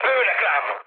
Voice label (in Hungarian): aircraft damaged mood med, hun; War Thunder